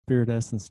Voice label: reverse speech